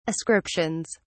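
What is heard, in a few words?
"ascriptions", British English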